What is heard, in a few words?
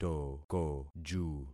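"tokoju", concatenated syllables; Affixing experiment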